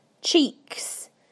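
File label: "cheeks", British English; PictureDictionary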